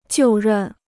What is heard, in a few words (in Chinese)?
"就任", Chinese